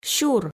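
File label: "сюр", Komi, female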